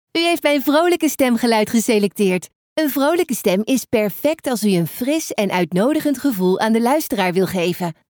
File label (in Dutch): Vrolijk